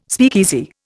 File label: "speakeasy", Indian